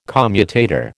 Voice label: "commutator", American